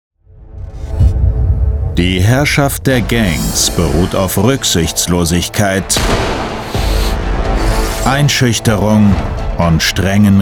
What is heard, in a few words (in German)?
Off Sprecher, Doku, Off, German; Gangland Chronicles